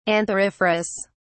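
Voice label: "antheriferous", British English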